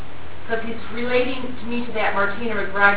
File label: extra mocking voice